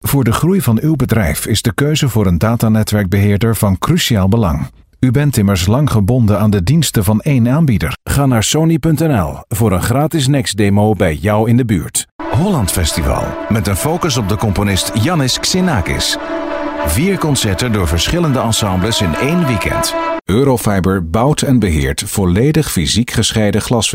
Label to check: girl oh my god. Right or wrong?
Wrong